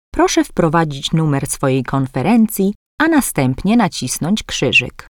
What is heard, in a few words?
Enter Conference Number, polish; voice prompts